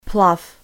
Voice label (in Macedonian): "plav", Macedonian